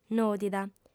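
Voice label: "nòdida" Sardinian, female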